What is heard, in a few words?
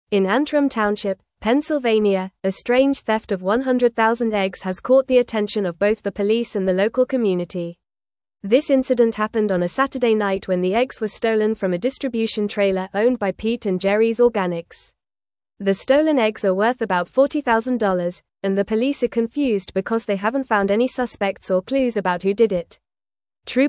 English Upper Intermediate FAST Reading; English Newsroom; Massive Egg Theft Leaves Pennsylvania Authorities Searching for Clues